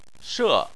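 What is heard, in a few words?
"she" tone 4, Chinese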